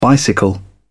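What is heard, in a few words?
"bicycle", British English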